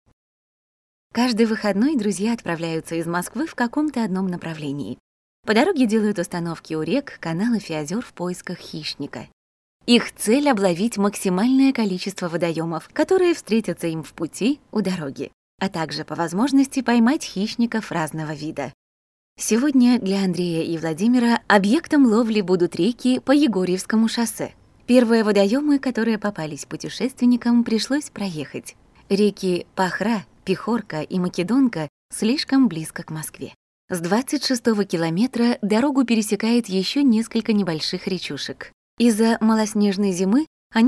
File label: russian narration